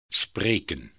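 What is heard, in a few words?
"spreken", Dutch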